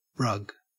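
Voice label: "rug", Australian English; pronunciations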